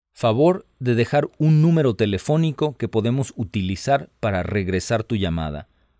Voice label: Spanish, hotline